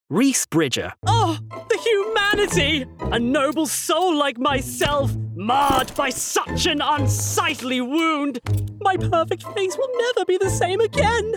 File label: Animation